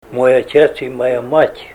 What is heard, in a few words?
my father and my mother, russian